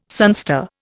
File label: "sunstar", Indian